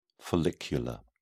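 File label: "follicular", British English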